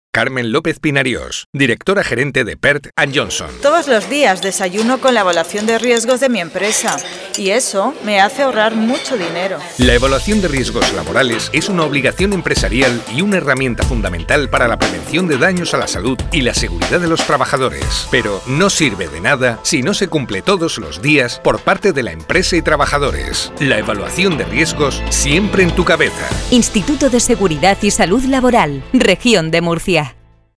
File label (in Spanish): cuña